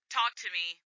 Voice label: Radio Chatter "Talk to me..."

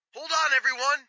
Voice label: Radio Chatter "Hold on everyone!"

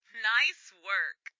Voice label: Radio Chatter "Make sure the headlights are on!"

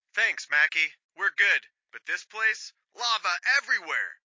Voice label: Radio Chatter; “Thanks Nikki, we’re good… But this place… Lava everywhere!”

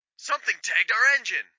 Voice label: Radio Chatter "Something tagged our engine!"

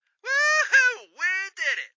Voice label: Radio Chatter "Woohoo! We did it!"

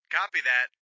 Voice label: Radio Chatter "Copy that!"

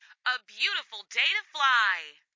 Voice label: Radio Chatter "A beautiful day to fly!"

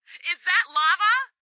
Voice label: Radio Chatter; “Is that lava ?”

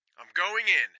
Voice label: Radio Chatter "I'm going in!"

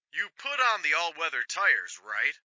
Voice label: Radio Chatter

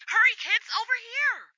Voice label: Radio Chatter "Hurry kids over here!"

